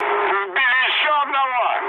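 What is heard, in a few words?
player killed enemy, mood low, Russian; War Thunder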